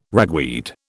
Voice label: "ragweed", British